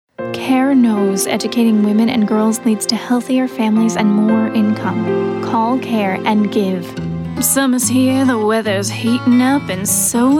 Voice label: vintage voice